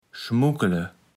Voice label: "schmuckele", Platt

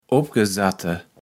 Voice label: "Opgesatte", Platt

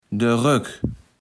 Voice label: "de Röck", kaevels platt

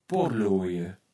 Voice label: "Pottloje", Platt

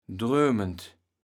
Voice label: "drömend", kaevels platt

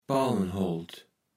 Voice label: "Palmenholt", Platt

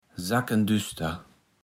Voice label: "sackendüster", Platt

